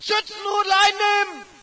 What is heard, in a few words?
ger spread out; player; Source Engine; dod